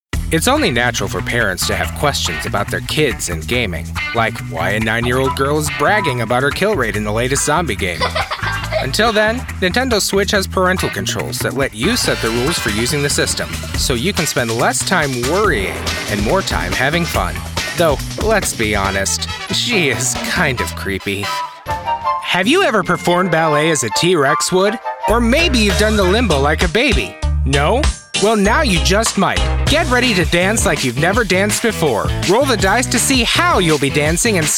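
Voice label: Commercial, male voice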